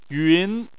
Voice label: "yun2", Chinese